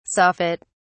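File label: "soffit", British English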